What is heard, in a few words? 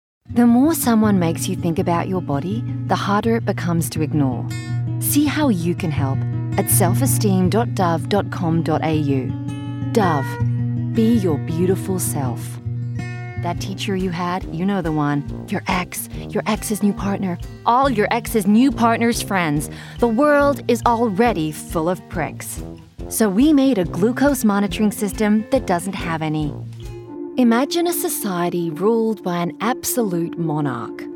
ACCENT character